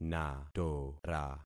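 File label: "natora", concatenated syllables; Affixing experiment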